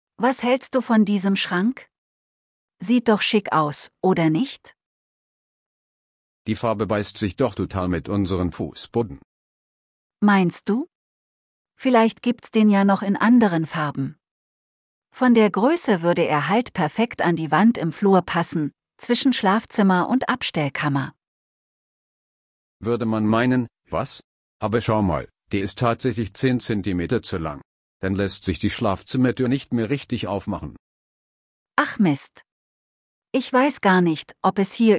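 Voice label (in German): Dialog Möbel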